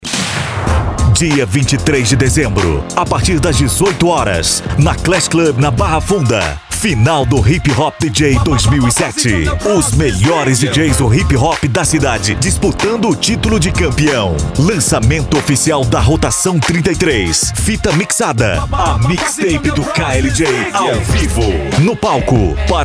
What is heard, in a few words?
spot hiphop dj